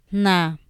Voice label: ligero, Mazateco; INALI

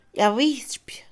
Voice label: Mixe, Mujer; esperanza; Emociones; INALI

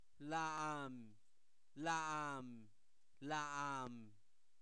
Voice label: ella, Zapoteco, santo domingo albarradas; INALI; pronombre libres

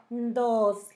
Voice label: lengua, Zapoteco; San Pedro Mártir; INALI

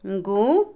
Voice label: cuarto, pieza, Otomí, mujer; Casa; INALI

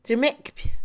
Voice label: cargar, Mixe, Mujer; Movimiento; INALI

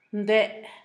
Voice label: "éste", Zapoteco Asunción Ocotlan; Adverbios; INALI; Haspelmath

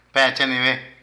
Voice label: Algo, Alguno, Zapoteco de Petapa; Cantidad; Vocabulary

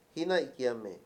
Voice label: "número", Mayo; Mundo moderno

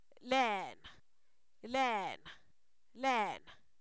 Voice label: estómago, Zapoteco, san miguel albarradas; cuerpo; INALI

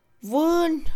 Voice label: cinturón, Mixe, Mujer; INALI

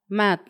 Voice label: tierra, Paipai; Vocabulary; INALI

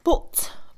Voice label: Mixe, Mujer; amarillo; colores; INALI

